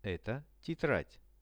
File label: "eto tetrad", Russian; Это тетрадь.; veshi